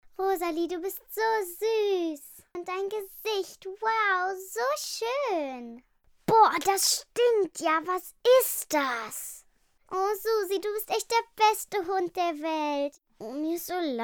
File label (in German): emotion